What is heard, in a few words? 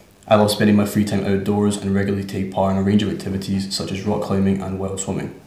interviewee male filler